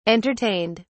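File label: "entertained", English, British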